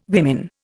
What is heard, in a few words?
"women", Indian